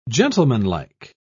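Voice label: "gentlemanlike", British English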